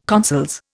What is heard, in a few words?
"consols", Indian